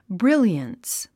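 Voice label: "brilliance", English word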